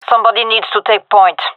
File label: request leader, gendarmerie fem; vo